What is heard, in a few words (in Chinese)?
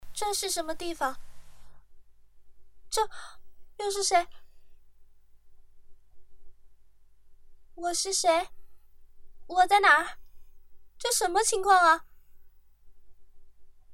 少女